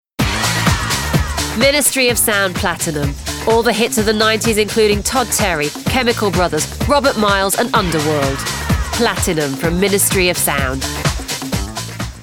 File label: BRITISH VO Showreel; Ministry of Sound